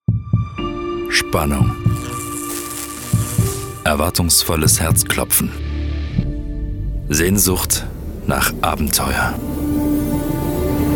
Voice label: german automotive